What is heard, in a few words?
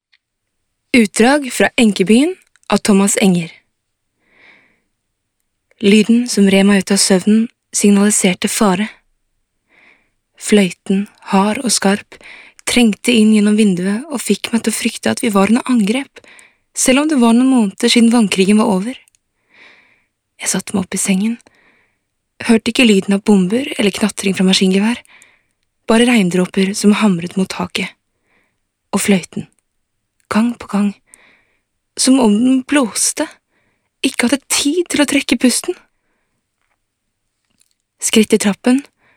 NOR Audiobook F